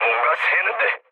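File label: Korean tank damaged mood low, speech; War Thunder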